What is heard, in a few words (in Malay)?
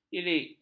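"irik", Malay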